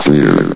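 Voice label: "clear", English, station announcer voice; S.P.L.U.R.T-Station-13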